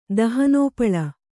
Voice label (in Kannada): "ದಹನೋಪಳ", Kannada